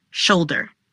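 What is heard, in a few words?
"Shoulder", pronunciation; body parts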